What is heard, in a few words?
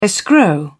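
"escrow", British English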